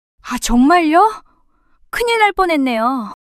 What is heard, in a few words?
surprise, monologue